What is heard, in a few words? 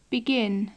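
"begin", British English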